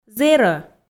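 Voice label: "зэрӧ", Komi, female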